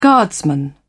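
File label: "guardsman", British English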